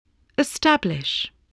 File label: "establish", voice, english